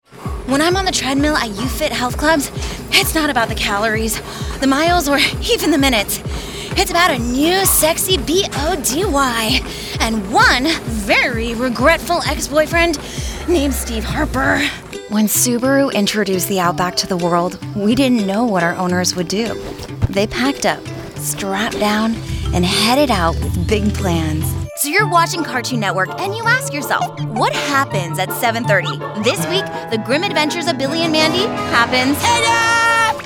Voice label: Commercial